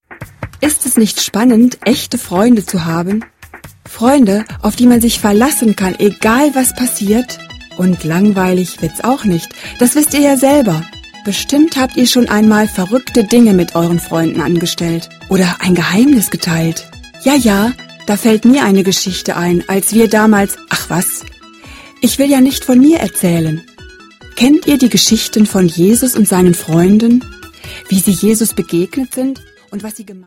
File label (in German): intro erzaehlerin